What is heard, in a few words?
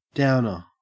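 "downer", Australian English; pronunciations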